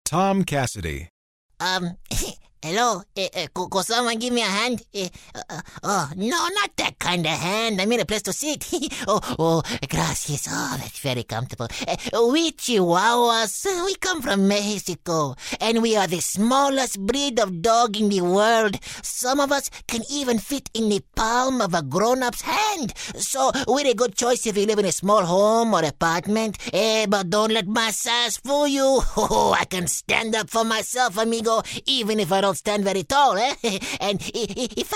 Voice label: MEXICAN, Mexican Accent